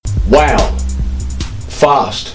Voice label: wow fast; csgo; surftimer